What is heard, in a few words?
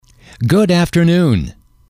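"good afternoon", English, male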